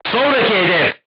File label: voice message target destroyed, crew tanks turkish